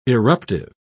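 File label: "eruptive", British English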